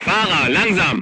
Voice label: voice message drive slowly, crew tanks german